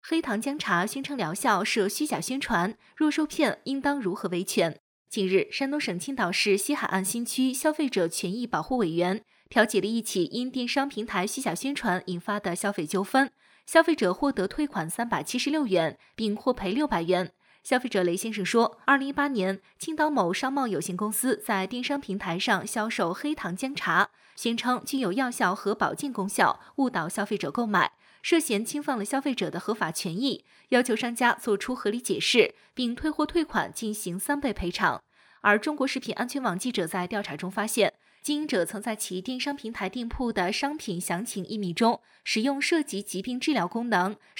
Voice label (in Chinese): 新闻稿